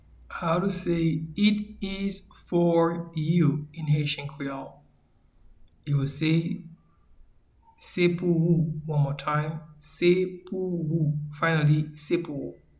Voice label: It is for you in Haitian Creole Se pou ou audio translation